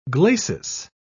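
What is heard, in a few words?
"glacis", English, British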